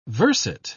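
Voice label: "verset", British English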